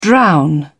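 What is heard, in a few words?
"drown", British English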